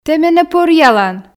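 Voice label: "тэ менӧ пӧръялан", Komi, female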